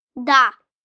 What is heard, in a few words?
Russian Yes, Response, Foreign, 1 boy, 9 yrs., Russian; Talking